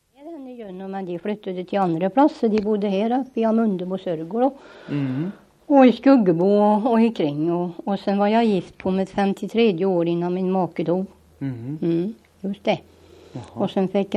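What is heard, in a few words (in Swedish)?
Östergötland, Svenska sagor, dialekter; Folkminnen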